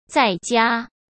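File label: "在家", Mandarin Chinese; chinese-flashcards; hsk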